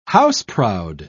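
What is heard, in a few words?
"house-proud", British English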